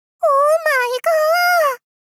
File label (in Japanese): おーまいがー 少女×萌え系×高音域